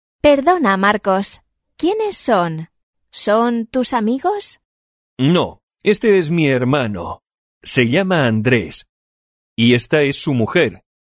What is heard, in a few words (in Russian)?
urok dialog